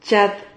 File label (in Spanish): "chad", Silabas